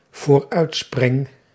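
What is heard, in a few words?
"vooruitspring", Dutch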